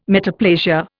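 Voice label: "metaplasia", English, Indian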